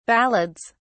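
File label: "ballads", British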